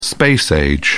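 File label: "space age", English, British English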